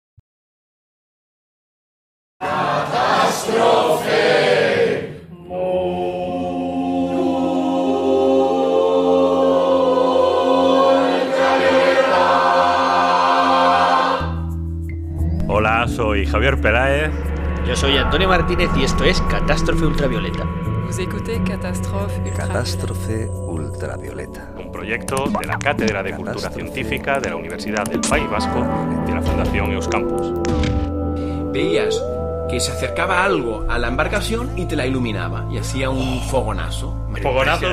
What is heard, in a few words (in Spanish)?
promo; catastrofe ultravioleta